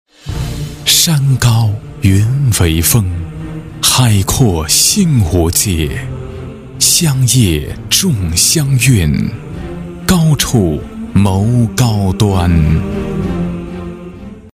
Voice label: 男 广告 片头片花 磁性

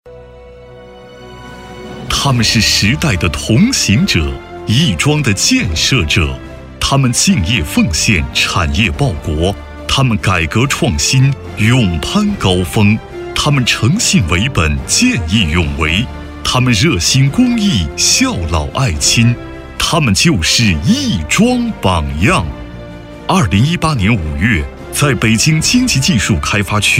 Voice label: 男国 专题 颁奖; 亦庄榜样

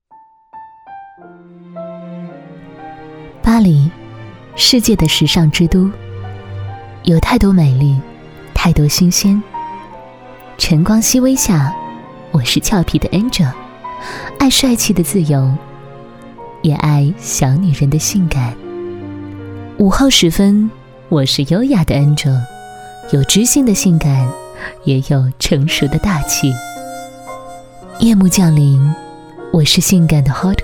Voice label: 广告 服装 讲述, 女国; 都市丽人

